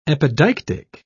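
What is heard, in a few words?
"epideictic", British English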